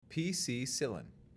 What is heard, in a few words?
"PC-cillin" pronounced